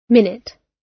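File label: "minute", British English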